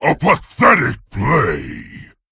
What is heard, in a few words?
Doomguard Demon Emote Attack